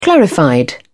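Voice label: "clarified", British English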